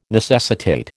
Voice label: "necessitate", American

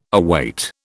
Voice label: "await", British

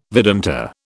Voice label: "Vedanta", British

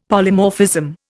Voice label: "Polymorphism", Indian; Dictionary

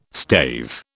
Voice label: "stave", British

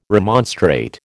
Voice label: "remonstrate", American

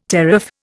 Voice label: "teraph", Indian

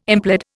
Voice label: "implead", Indian

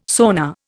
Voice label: "sonar", Indian

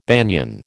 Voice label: "banian", American

